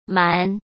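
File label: "蛮", Mandarin Chinese; chinese flashcards; hsk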